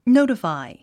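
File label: "notify", English; 発音 nóutəfài ノウタファイ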